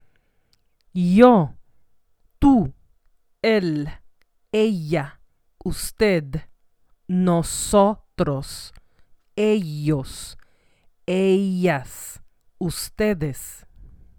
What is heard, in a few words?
spanish pronouns